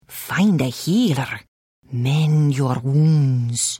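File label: Hello, Khajiit female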